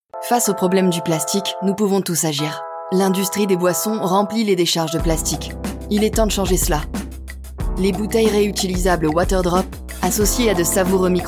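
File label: Calm Promo video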